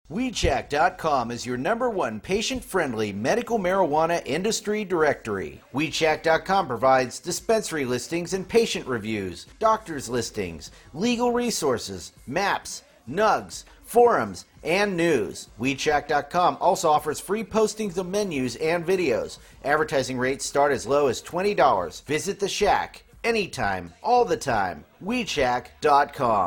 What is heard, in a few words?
commercial; WeedShack